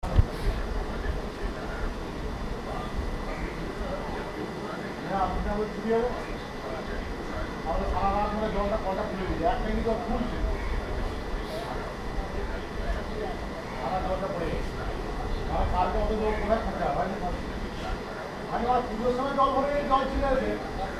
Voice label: Morning voices, North India